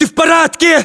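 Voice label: status check, battlechatter, Russian; localized english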